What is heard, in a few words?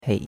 "hei3", chinois; Cours-de-Chinois